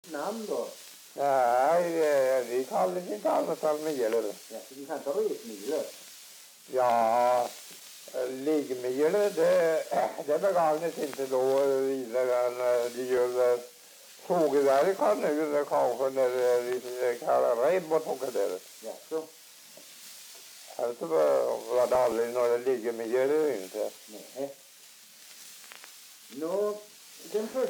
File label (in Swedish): dialekter; Folkminnen; Svenska sagor